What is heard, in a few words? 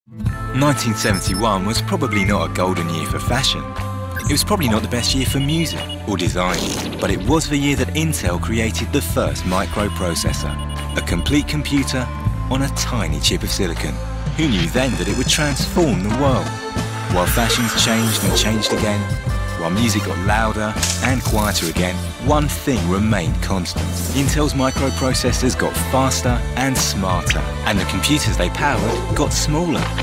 Contemporary